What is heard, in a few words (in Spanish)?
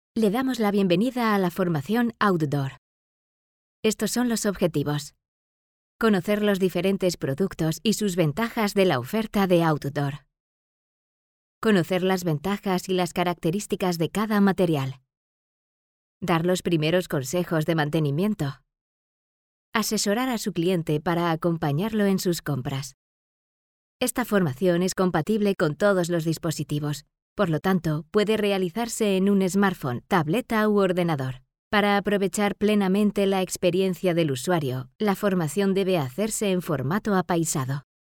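e-learning, Spain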